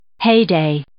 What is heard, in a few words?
"heyday", English